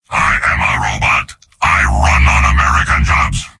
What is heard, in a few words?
soldier robot